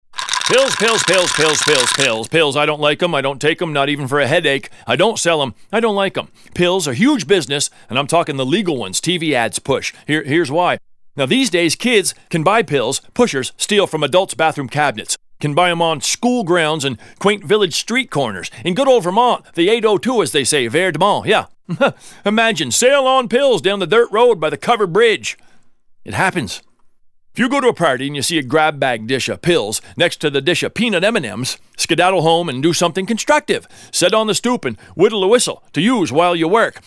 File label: PSA; North Country